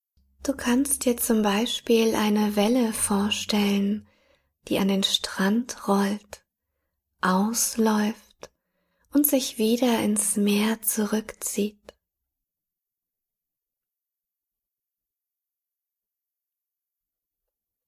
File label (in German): Atemmeditation